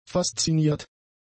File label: "fasziniert", German; adjectives